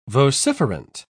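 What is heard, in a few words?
"vociferant", British English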